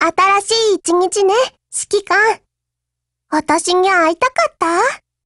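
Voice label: HELLO Japanese, Voice; GF Alarm; FN57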